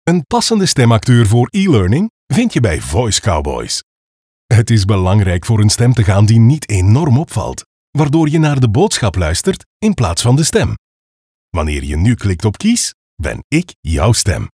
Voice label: ELEARNING